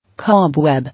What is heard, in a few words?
"Cobweb", English